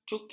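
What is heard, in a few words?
"cuki", Malay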